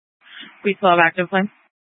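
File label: Incident Tactical 1, Scanner audio recording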